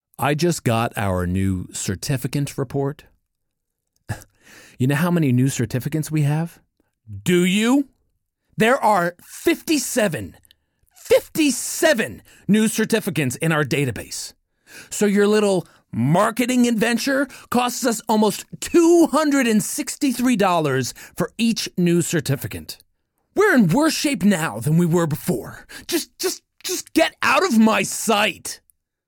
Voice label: VO male